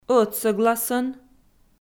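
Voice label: "ӧтсӧгласӧн" Komi, female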